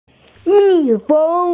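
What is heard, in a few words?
"mi4feng1", Mandarin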